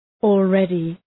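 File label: "already", English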